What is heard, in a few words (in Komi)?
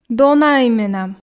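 "донаӧй менам", Komi, female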